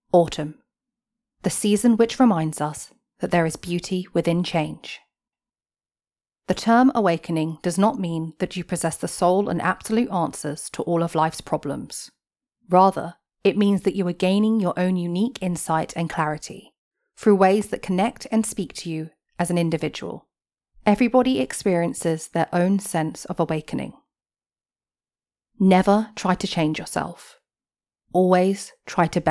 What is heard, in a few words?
female English audiobook compilation